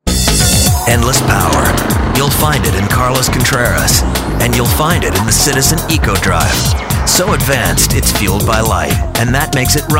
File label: Commercial